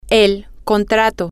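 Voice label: the contract, Spanish, female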